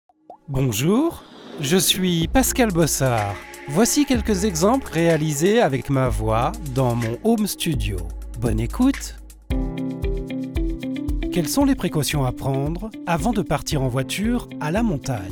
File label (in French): Podscast Narration; ♂fr; Voix Podcast